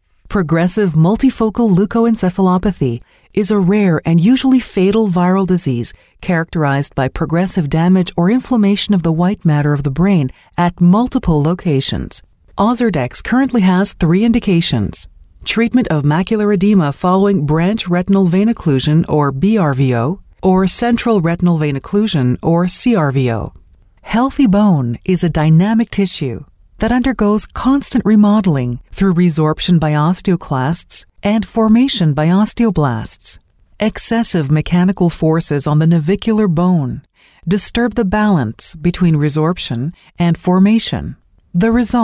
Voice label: medical English